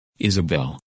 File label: "Isabelle", women names; Английские имена: женские